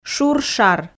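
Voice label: "шур-шар", Komi, female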